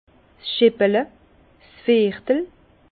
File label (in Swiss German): "scheppele", Bas Rhin; vin; chopine